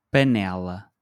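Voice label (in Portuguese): "panela", português europeu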